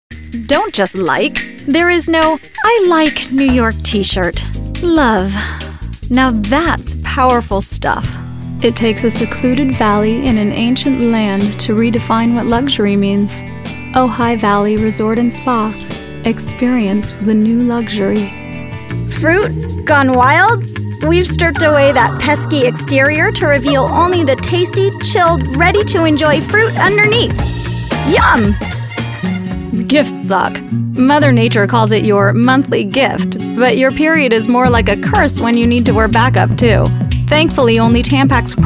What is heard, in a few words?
voiceover